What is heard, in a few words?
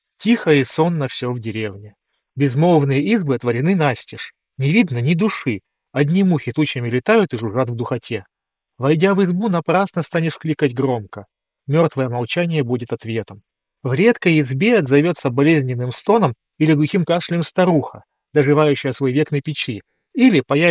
Mic; huawei freebuds pro 5